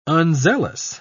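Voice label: "unzealous", English, British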